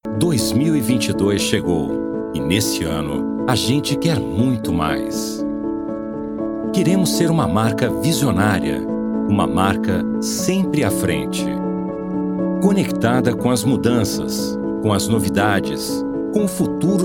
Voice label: Brazilian Voice Talent